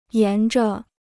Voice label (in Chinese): "沿着", Chinese